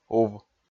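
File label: "ув", Komi, male; kpv audio dict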